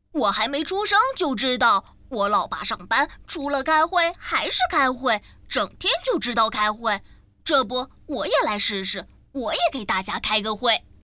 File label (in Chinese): 动画 童声 男童